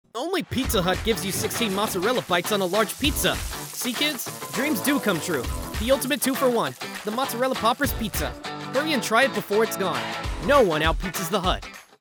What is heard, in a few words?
Spot; Pizza Hut